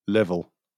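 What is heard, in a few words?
"level", British English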